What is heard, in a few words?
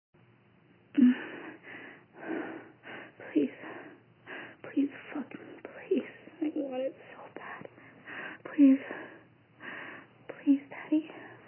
[Begging][Short]; [Preview]